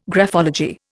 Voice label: "graphology", Indian